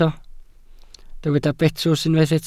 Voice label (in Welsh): "betws", Welsh